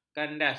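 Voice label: "kandas", Malay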